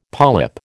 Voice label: "polyp", American

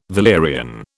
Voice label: "valerian", British

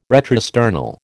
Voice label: "retrosternal", American

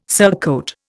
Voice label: "surcoat", Indian

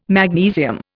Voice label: "magnesium", Indian

